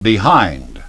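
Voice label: "behind", English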